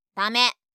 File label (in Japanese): "ダメ", 相づち・反応系【ネガティブ】; ASMR